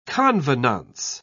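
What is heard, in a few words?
"convenance", British English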